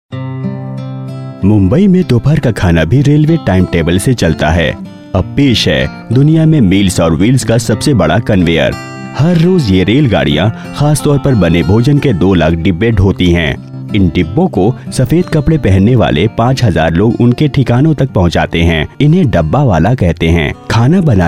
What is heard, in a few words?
corporate hindi, male